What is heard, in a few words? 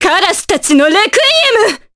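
Vox Skill, voices, Japanese; Maria; kingsraid; voices heroes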